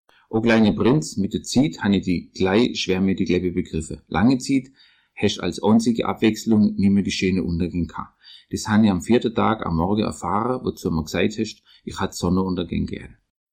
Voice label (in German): Deutsch Donaueschingen